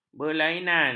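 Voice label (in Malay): "berlainan", Malay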